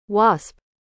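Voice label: "Wasp", American English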